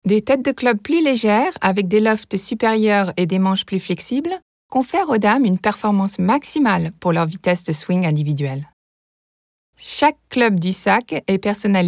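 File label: French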